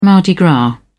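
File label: "mardi gras", English, British English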